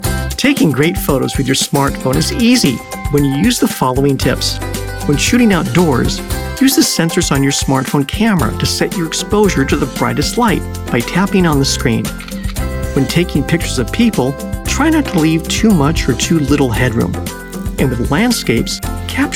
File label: eLearning